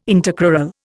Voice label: "intercrural", Indian